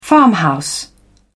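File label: "farmhouse", British English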